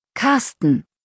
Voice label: "Karsten", deutsch, jungennamen

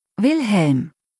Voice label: "Wilhelm", deutsch, jungennamen

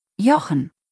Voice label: "Jochen", deutsch jungennamen

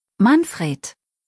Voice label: "Manfred", deutsch, jungennamen

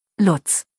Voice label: "Lutz", deutsch, jungennamen

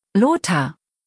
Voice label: "Lothar", deutsch, jungennamen

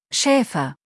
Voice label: "Schäfer", deutsch

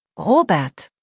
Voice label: "Robert", deutsch, jungennamen

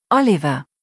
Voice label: "Oliver", deutsch, jungennamen

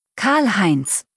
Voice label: "Karl-Heinz", deutsch, jungennamen

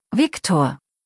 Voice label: "Viktor", deutsch, jungennamen

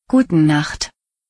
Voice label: "gute nacht", deutsch

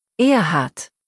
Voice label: "Erhard", deutsch jungennamen

